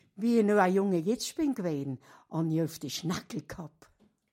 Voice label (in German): hoerbeispiel suedbairisch sarnthein; sprachliche vielfalt